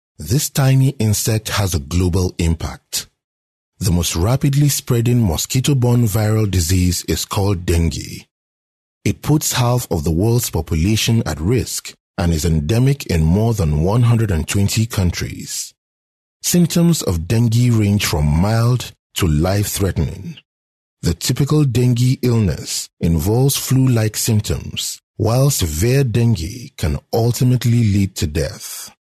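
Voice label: English, African, male; hausa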